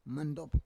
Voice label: "mandob", Roon